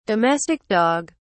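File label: "domestic dog", British English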